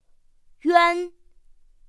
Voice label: "yuan", pinyin; pinyin2; pinyinji

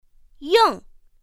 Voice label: "yìng", pinyin; pinyin2; pinyinji

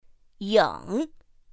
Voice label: "yǐng", pinyin; pinyin2; pinyinji

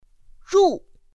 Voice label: "rù", pinyin; pinyin2; pinyinji

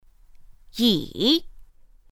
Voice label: "yǐ", pinyin; pinyin2; pinyinji

